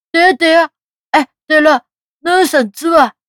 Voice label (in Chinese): 小男孩 问猫